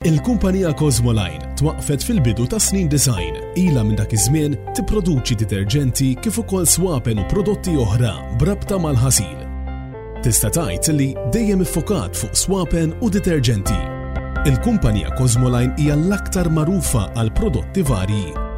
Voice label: MALTESE